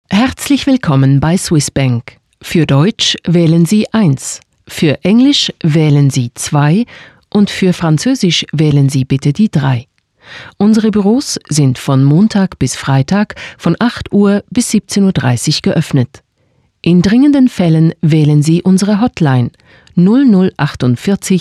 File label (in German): Telefonansage